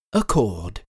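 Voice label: "accord", English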